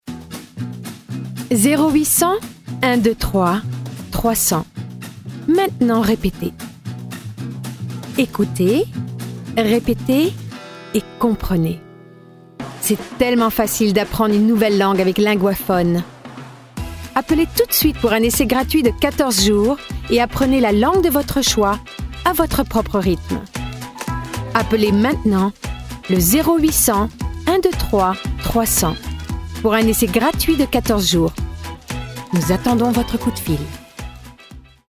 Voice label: French